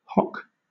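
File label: "hock", British English; pronunciations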